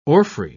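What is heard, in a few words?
"orphrey", British English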